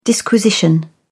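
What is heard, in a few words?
"disquisition", British English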